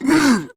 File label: Pain Grineer Ghoul Sarge, en; Grineer Ghoul Drill Sargeant; Battle Barks